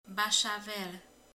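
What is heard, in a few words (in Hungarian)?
"zenél", Hungarian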